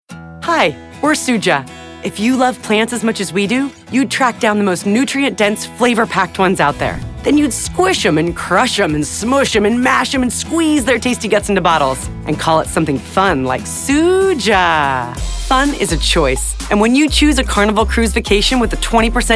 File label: vo commercial